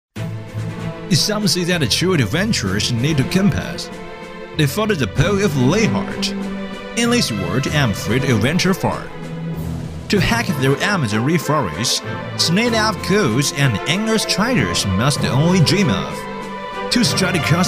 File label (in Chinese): 男 英语配音 冒险家 信息流; 语言全能; 幽默搞怪